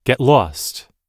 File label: get lost, US English